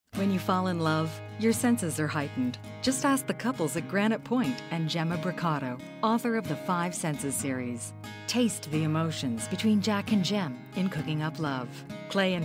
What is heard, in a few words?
Romance Warm Down to Earth